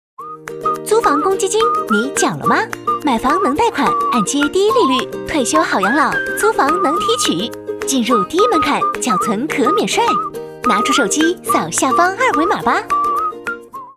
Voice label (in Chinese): 广告轻快